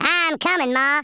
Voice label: kamikaze, Redneck